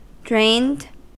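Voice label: "drained", US English; pronunciations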